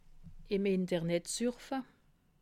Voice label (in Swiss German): "surfe", alsace